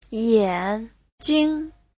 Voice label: "yan3 jing5", Chinese

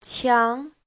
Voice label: "qiang2", Chinese; Giáo trình Hán ngữ

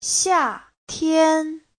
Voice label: "xia4 tian1", Chinese

